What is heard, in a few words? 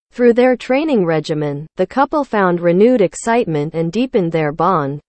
sub training english example audio